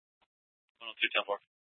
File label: Police South Dispatch; Regional Wrlss Cooperative (RWC); Scttsdle Police